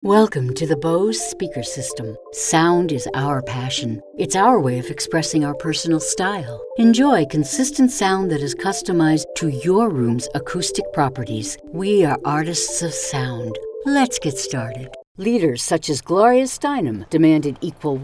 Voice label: VO Narration